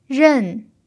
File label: "ren4", chinese pinyin